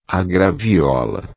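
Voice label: "graviola", Portuguese; alimentos; frutas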